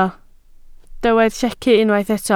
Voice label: "llechu", Welsh